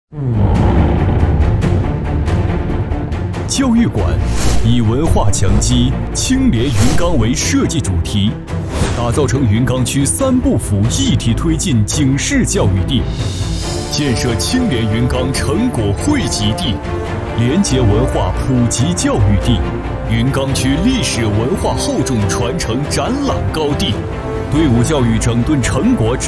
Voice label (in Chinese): 男 专题; 廉洁文化教育馆